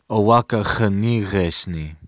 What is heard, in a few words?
no understand, lakota